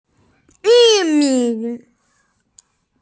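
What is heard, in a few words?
"yu mi", Mandarin Chinese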